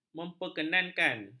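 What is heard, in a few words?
"memperkenankan", Malay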